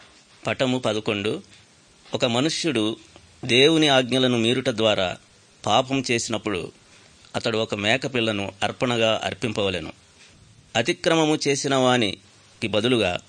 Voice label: good news telugu